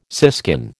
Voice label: "siskin", American